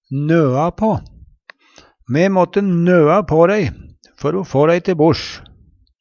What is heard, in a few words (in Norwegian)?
noa pa, Numedalsmål; overtale; Me måtte nøa på dei før o få dei te bors.; Uttrykk